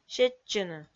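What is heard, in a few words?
"сетчыны", Komi, female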